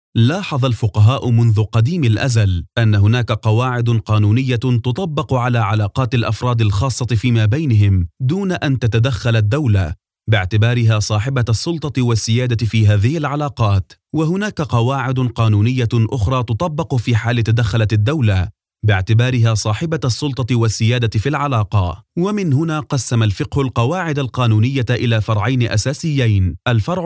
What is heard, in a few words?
arabic audiobooks